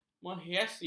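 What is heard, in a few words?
"menghiasi", Malay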